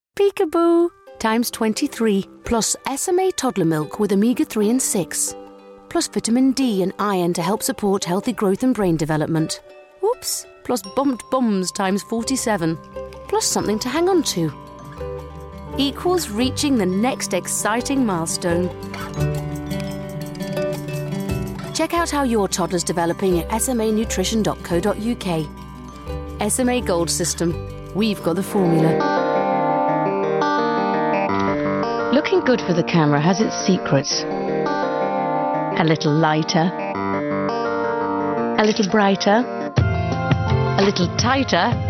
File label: Commercial